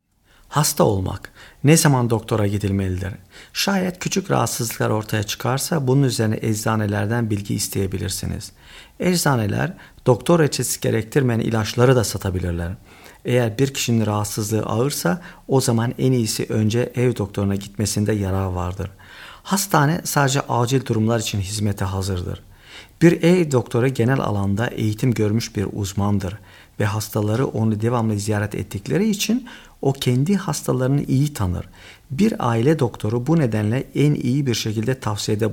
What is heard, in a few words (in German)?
krank sein tuerkisch